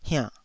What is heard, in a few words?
"hiannh3", Taiwanese syllable